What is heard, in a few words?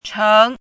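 "cheng2", Mandarin Chinese syllable, pinyin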